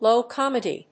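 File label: "low comedy", English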